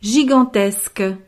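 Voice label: "gigantesque", French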